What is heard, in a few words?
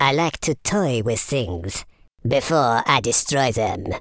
amazing, Super Villain; speech